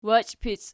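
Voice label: "wachipic" nominative, Archi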